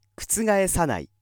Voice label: male